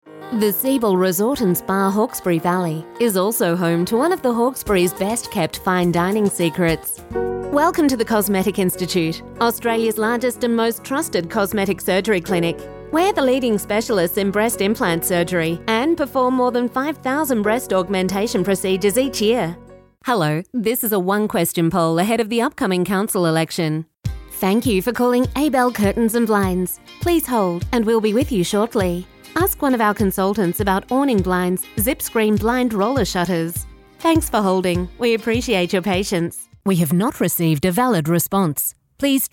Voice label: australian voice telephone